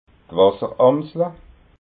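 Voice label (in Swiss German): "wasseramsla", Alsatian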